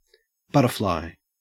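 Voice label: "butterfly", Australian English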